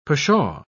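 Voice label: "pshaw", British English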